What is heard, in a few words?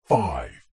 radio production voice male processed deep says 1